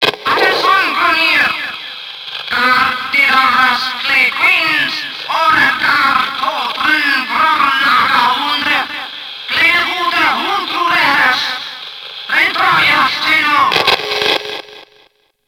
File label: Informant Drone PA; Warframe; Grineer